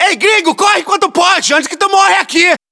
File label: hostile burst, battlechatter; cod modern warfare 2